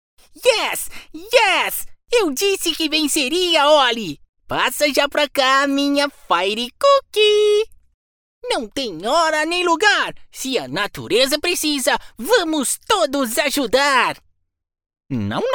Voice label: Voz Para Personagem Animado HEROICO

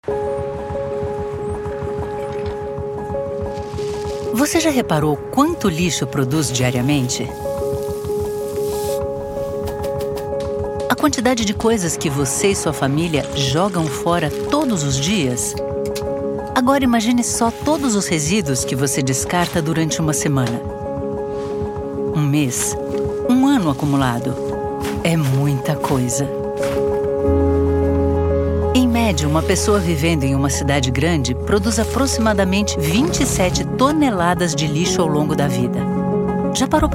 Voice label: Narracao Instit conversada

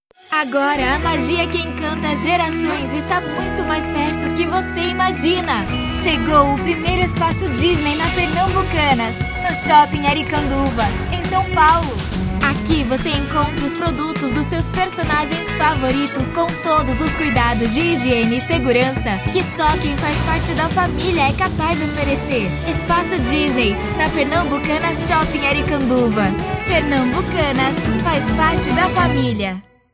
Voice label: Publicitaria

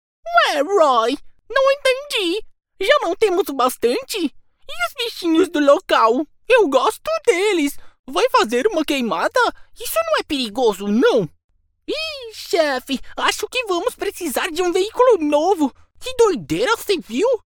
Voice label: Voz Para Personagem Animado; BOBINHO

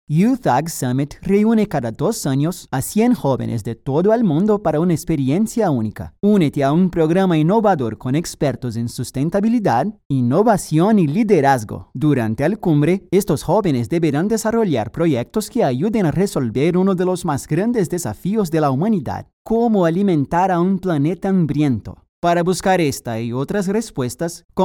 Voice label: Locução Espanhol; YouthAgSummit

